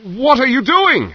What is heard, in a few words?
fear; Source Engine; bshift; rosenberg